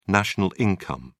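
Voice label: "national income", British English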